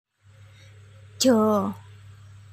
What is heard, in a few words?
Suara Ja, aksara, Basa Jawa